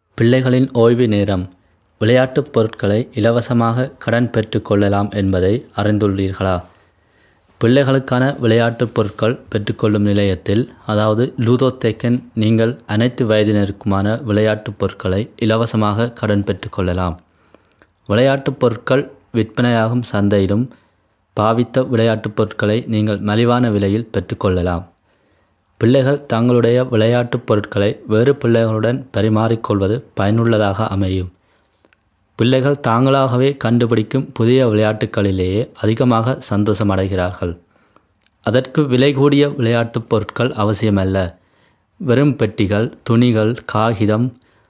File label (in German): tamil